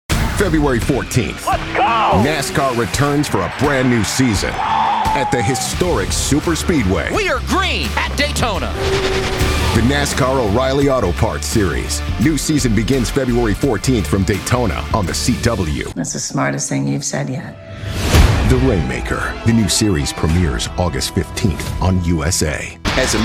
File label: PROMO